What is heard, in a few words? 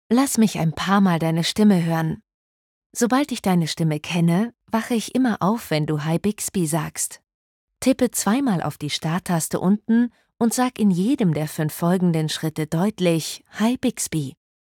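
wake up ready German; Bixby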